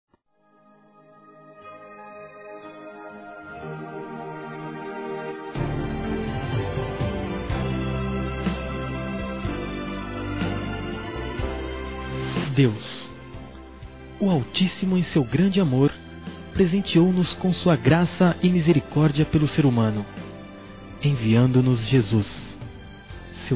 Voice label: NATAL FESTA MAIOR M; Telemensagens; Natal e Ano novo

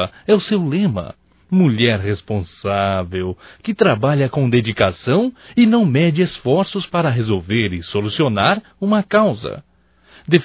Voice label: HOMENAGEM A ADVOGADA, Telemensagens Só Voz masc; HOMENAGENS

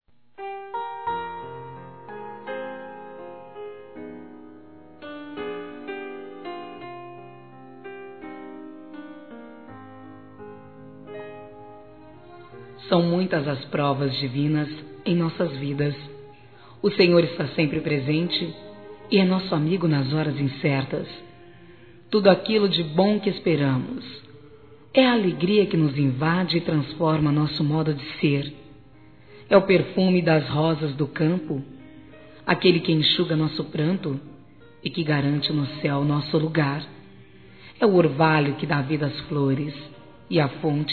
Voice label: Trilha OTIMISMO GERAL, Gospel, fem; Telemensagens; Telemensagens 2017

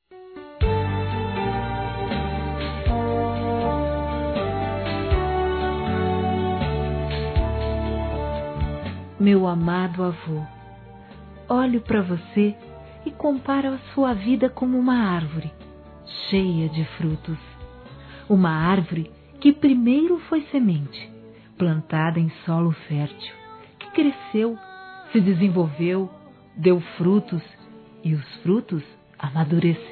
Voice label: Aniversário Avô Evangélica Feminino; Aniversários; Aniv Familiar

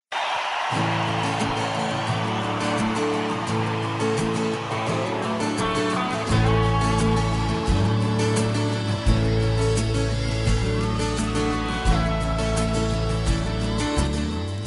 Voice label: ANIV ROMÂNTICO FEM; Aniversário; ANIVERSARIO ROMANTICO (COLETÂNIA)

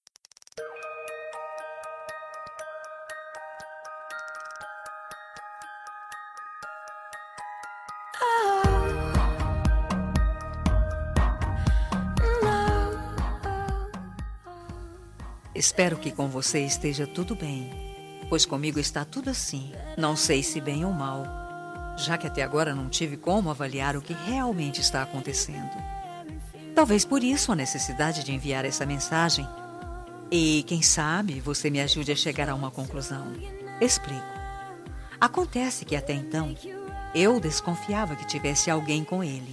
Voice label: SEI QUE VC É A OUTRA, fem

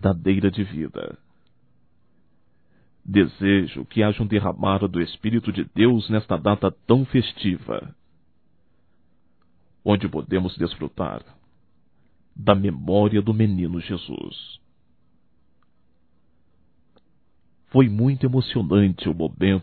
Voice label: Romântico Masculino, Natal; Natal e ano novo; Telemensagens 2017

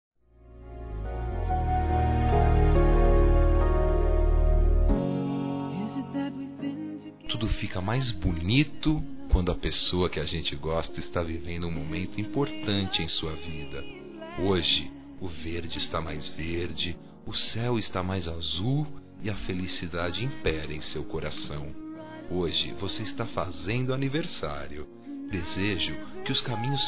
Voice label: NEUTRO, masc

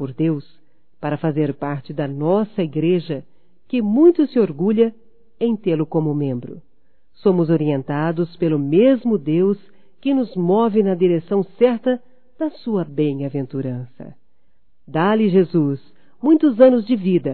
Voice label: EVANG ANIVERSÁRIO IRMÃO EM FÉ - F; Aniversarios; Telemensagens; Telemensagens 2017

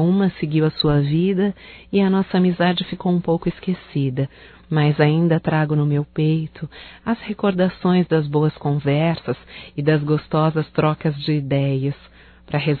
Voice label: SAUDADES AMIGA, fem, Só Voz

